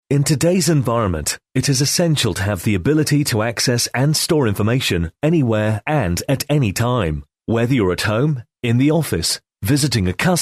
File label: E-learning